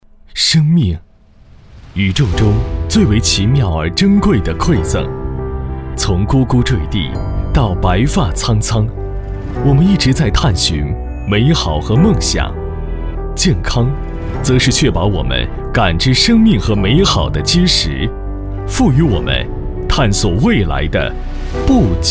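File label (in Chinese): 男国大音-科技医疗; 武汉碧清妍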